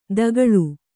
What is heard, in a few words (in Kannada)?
"ತಗ್ಗಾಳು", kannada